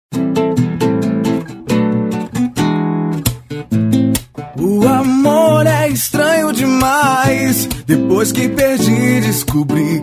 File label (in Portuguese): teste mic